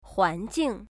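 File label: "huanjing", Chinese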